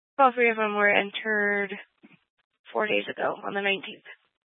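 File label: Police Dispatch, Scanner audio; Police 1 Dispatch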